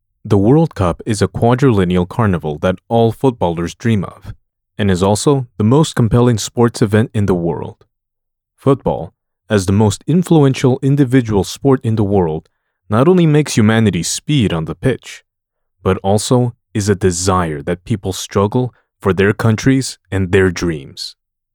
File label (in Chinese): 外籍英男 干音 足球体育